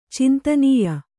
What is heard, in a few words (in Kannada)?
"ಚಿಂತನೀಯ", Kannada; cintanīya